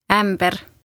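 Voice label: "Ämber" Estonian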